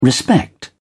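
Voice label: "respect", British English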